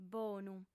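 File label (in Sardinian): "bonu", female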